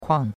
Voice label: "kuang4", chinois; Cours de Chinois